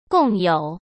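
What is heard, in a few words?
"共有", Mandarin Chinese; chinese flashcards; hsk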